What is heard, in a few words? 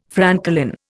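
"francolin", Indian English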